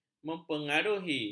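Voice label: "mempengaruhi", Malay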